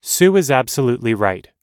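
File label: everyday agreeing, American English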